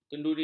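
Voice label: "kenduri", Malay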